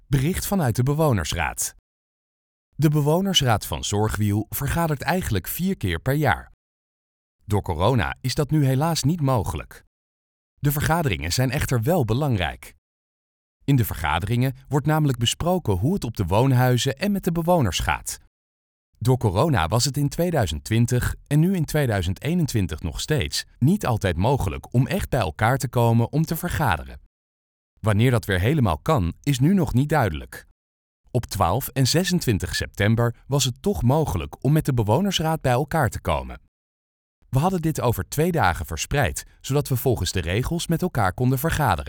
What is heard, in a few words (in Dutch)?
Luisterversie Voiceover